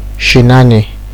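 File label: "shenani", Nigerian Pidgin, male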